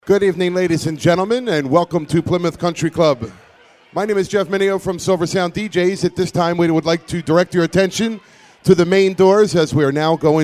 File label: voice demo